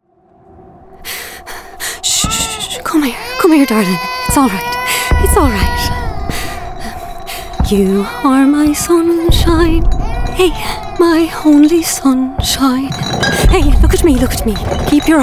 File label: gaming reel, voiceover